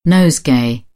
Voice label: "nosegay", British; oʊ - əʊ; English

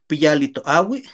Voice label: "piyalli toaui", Náhuatl; hola señora; hello madam

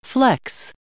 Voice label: "flex", English

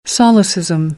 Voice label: "solecism", American, English; ɑː - ɒ

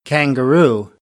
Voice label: "kangaroo", American; AmericanBritish

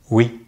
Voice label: "oui", French

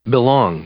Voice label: "belong", English; verb

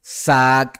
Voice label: "Saak'", Maya

